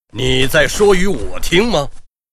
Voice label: Knight pissed; 人类